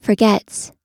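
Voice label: "forgets", US English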